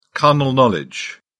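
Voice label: "carnal knowledge", British English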